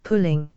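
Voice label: "pulling", English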